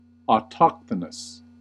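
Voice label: "autochthonous", American English, cot caught merger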